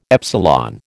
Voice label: "epsilon", American